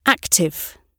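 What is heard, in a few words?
"active", British English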